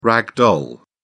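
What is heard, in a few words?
"rag doll", British English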